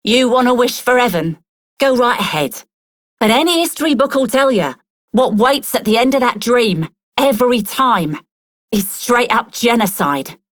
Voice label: Female Rebel, en; Dialog; Warframe; Solaris Venus